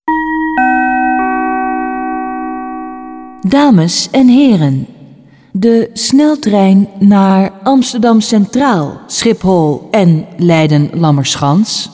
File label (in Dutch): ns mededeling